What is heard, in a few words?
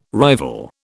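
"rival", British English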